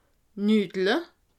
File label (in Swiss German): "nudle", alsace